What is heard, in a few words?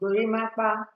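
gorimakpa, Naija, female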